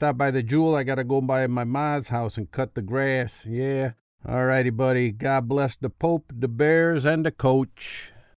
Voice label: male american voice over character, english american